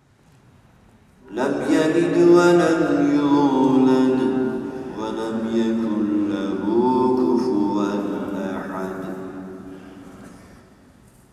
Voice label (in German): Muezzin in Moschee